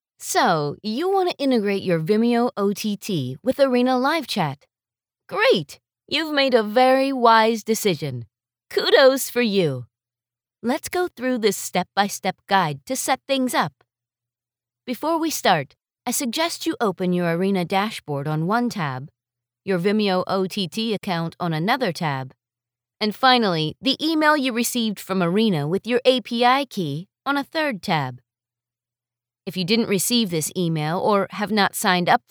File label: Explainer Video